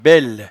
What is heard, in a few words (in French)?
"bel", patois jura